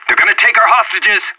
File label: hostages being taken, player vo anarchist; Counter-Strike Global Offensive